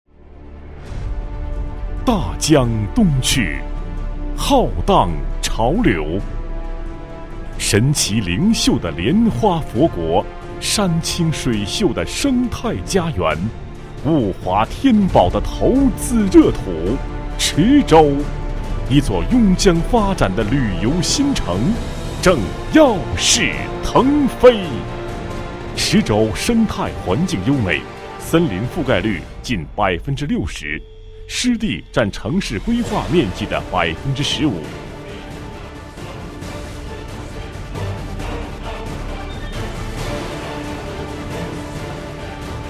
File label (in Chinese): 男 专题 地区城市宣传 大气, 国语男声配音; 池州; 磁性